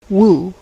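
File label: "woo", US English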